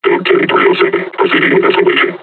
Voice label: taunt, combine charger; Half Life Alyx

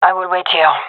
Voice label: waiting here, gendarmerie fem; vo

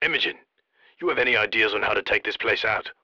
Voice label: bomb planning, coop radio

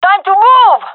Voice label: request move, gendermerie fem; vo

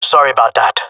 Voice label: sorry, jungle male; player vo; Counter Strike Model Pack; CS:GO

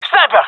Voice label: sees sniper, gendarmerie male; vo

